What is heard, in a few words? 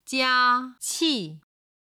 "jia qi", Chinese